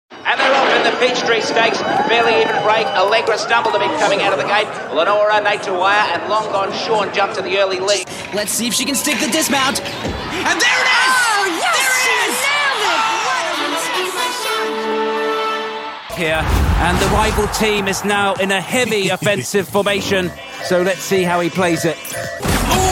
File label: sports commentary